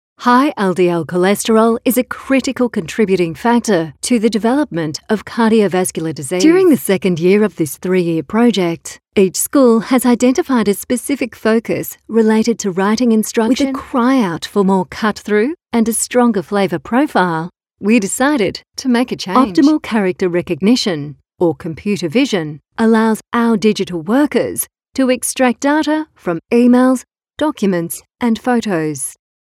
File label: Corporate